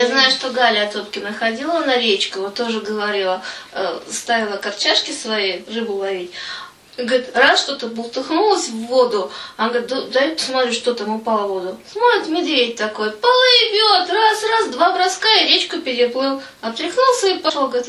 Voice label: middle ob dialect; корчажка